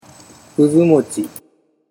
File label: "kuzumochi", Japanese